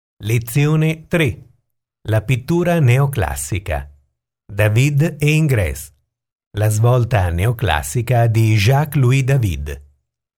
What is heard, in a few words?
ITALIAN